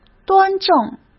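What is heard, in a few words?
"端正", Mandarin Chinese; chinese-flashcards; hsk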